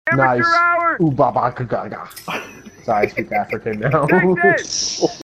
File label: speaking african